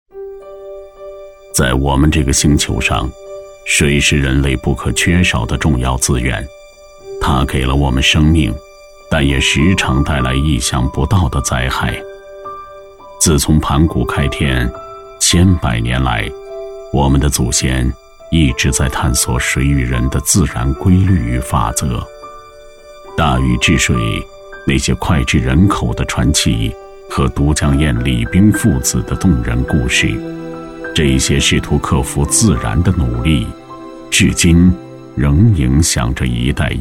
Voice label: 男 纪录片

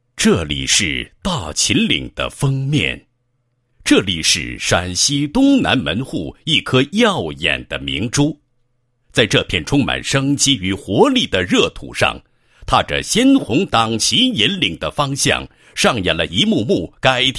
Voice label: 男; 活动纪实; 旗帜引领奏华章 三创三优 活动巡礼

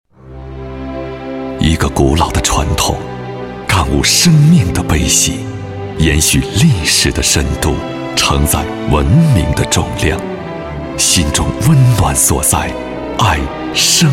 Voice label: 男, 片花; 江西交广清明宣

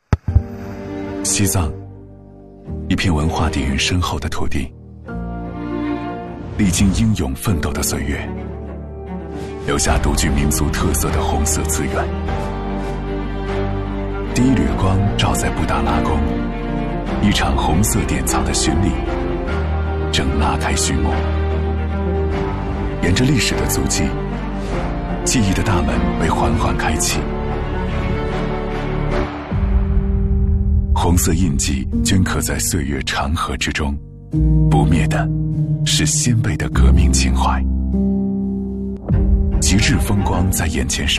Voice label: 男 节奏 历史感; 西藏红色旅游

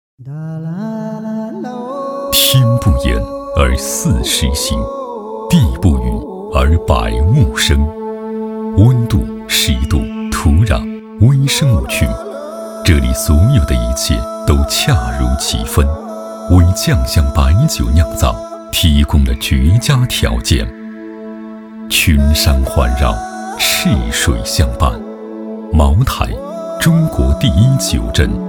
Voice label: 男 宣传 酒类 片 悠扬大气; 鸿道酒

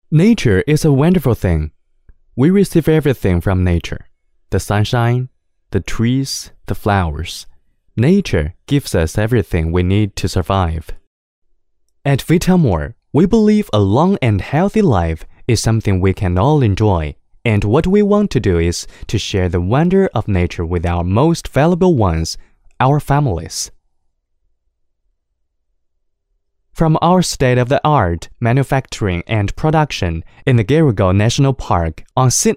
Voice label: 男 英文 科教类 解说

